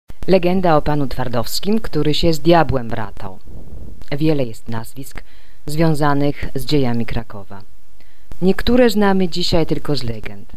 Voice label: Polen W

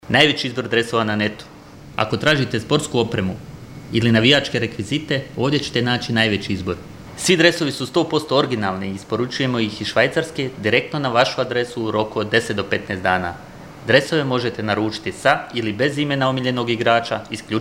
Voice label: Bosnien M

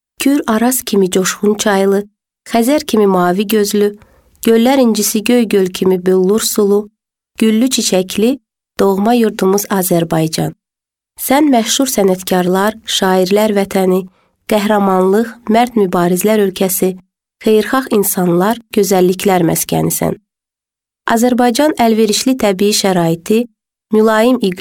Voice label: Aserbaidschan W1, voiceactors

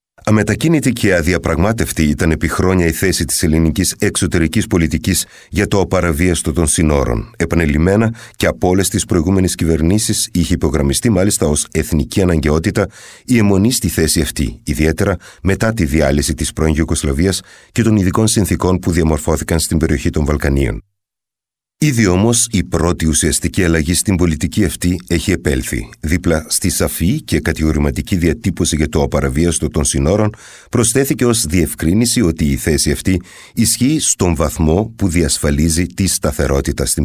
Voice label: Griechenland M

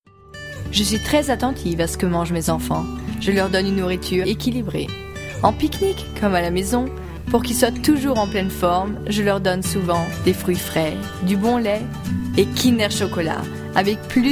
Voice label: Frankreich W7, voiceactors

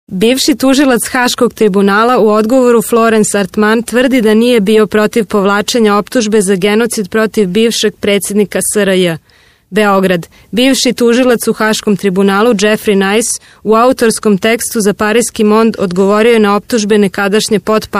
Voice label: Serbien W

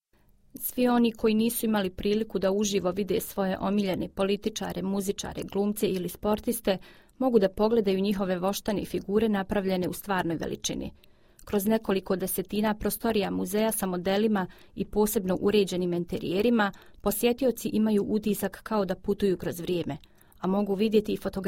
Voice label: Bosnien W

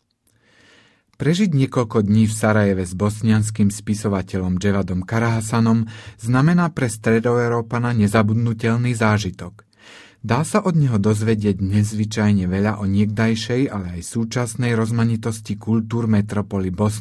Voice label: Slowakei M

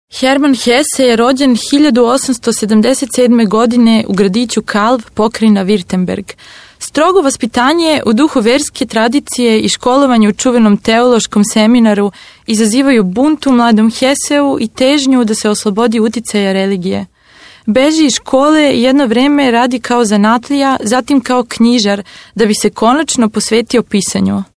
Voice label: Serbien W

